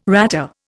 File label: "ratter", Indian